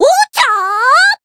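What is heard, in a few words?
Voice finish Japanese; myungwha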